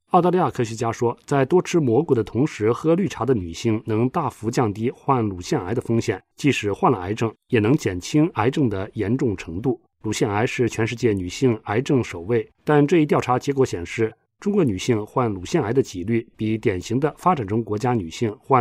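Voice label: Chinese, General; GoLocalise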